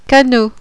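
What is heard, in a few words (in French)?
"CANOT", French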